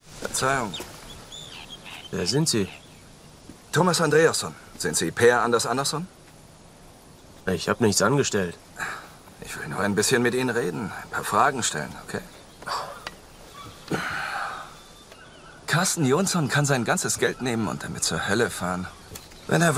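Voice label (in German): Synchron, Männer; Mord im Mittsommer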